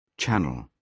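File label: "channel", British English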